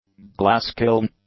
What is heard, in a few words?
"glass-kiln", English; nouns